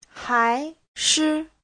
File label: "hai2 shi5", chinese; Giáo trình Hán ngữ